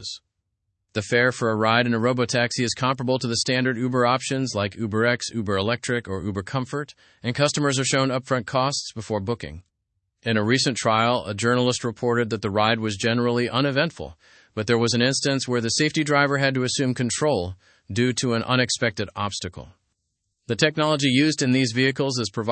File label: learn english through news advanced fast; launch of uber s self driving taxis in london